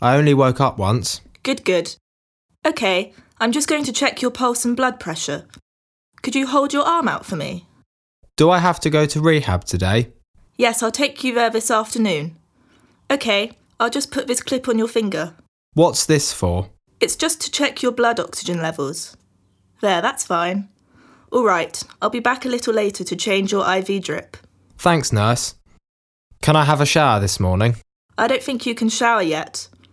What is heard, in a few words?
Conversation; Bedside Manner Beginner